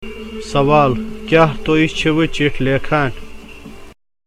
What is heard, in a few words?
Spoken Kashmiri, Question: Are you writing a letter?; Question formation; Questions ( Negative Answers ); An Introduction to Spoken Kashmiri; Selected Topics